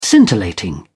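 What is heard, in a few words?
"scintillating", British English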